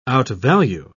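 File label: "outvalue", British English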